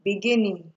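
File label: "beginining", Nigerian Pidgin, female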